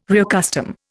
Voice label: "reaccustom", Indian

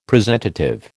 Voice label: "presentative", American

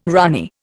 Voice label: "runny", Indian

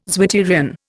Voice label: "zwitterion", Indian

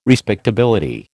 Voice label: "respectability", American

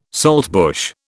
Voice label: "saltbush", British

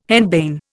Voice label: "henbane", Indian

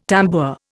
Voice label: "tambour", Indian

